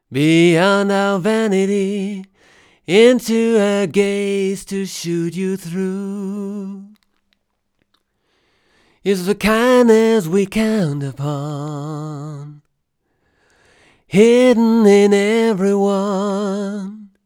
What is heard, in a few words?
malevoc bpm